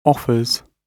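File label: "offers", British English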